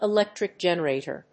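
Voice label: "ELECTRIC GENERATOR", English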